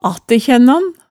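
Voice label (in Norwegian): "attækjænnan", Hemsedal dialect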